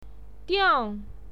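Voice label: "diang" fourth tone, pinyin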